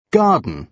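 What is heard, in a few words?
"garden", British English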